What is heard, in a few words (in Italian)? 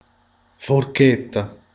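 "forchetta", Italian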